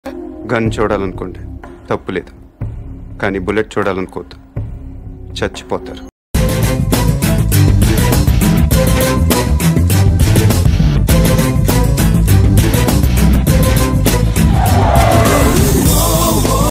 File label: mass dialogue, telugu; athadu; mahesh babu